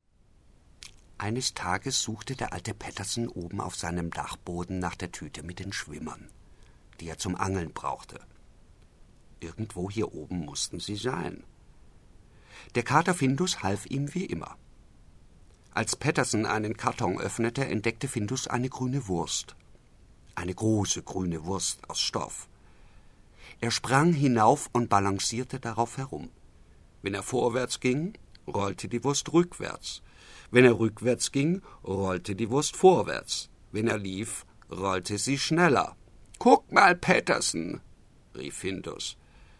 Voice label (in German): Kindergeschichte; Petterson und Findus